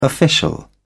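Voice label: "official", British English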